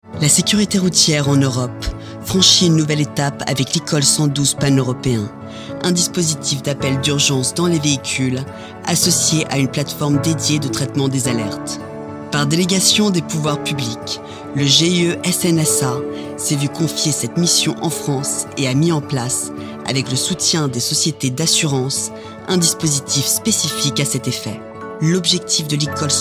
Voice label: Voix Française; Ecall 112

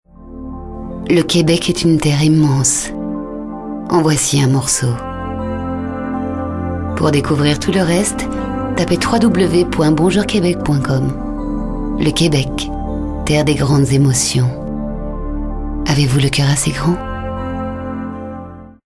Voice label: Quebec

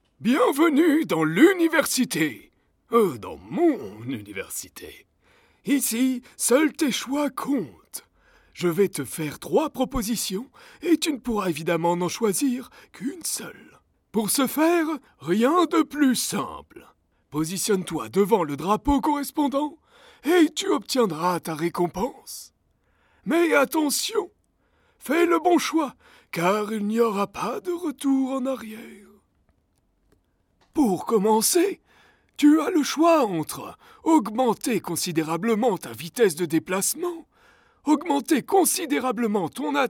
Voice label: Vieil Homme

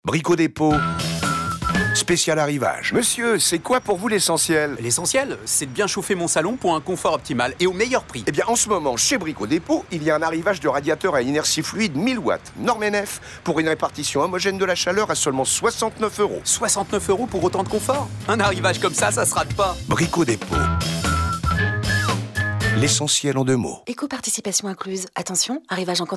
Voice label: SPOT RADIO TESTIMONIAL; Brico Dépot

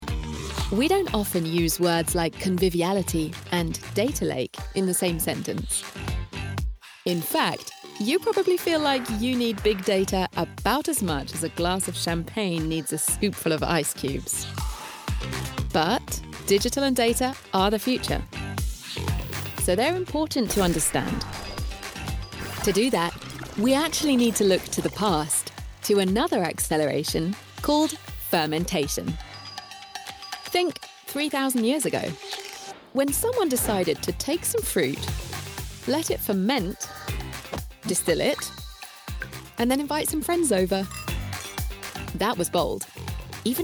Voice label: Humour Sourire; Pernod Ricard

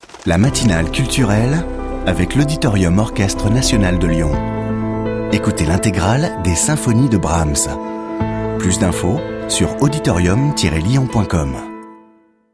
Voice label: Voix classe; FRANCE MUSIQUE; Partenariat de La Matinale

